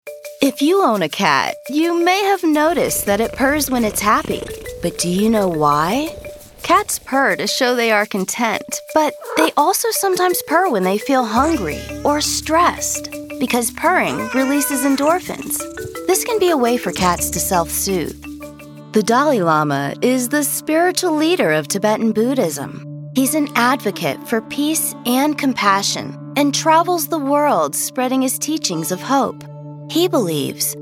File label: Narration